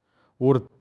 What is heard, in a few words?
hindi long; qwen3